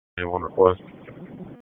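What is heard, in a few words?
Police Southwest District Disp, radio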